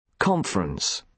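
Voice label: "conference", British English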